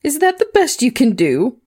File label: Female Constable combat taunt; SyrinScape; Missing Magistrate